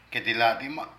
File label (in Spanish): Piel de animal, Zapoteco de Petapa; Ropa; Vocabulary